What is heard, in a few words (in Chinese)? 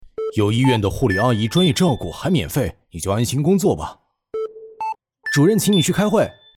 角色男